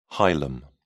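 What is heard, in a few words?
"hilum", British English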